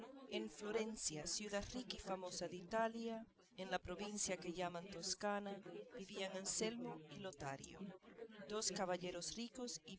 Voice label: female4 inst sim